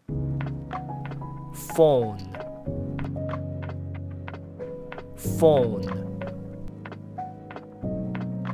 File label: Phone